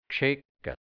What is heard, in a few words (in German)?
"gscheckert", Mundart, Burgenland, hianzisch; gescheckt; bunt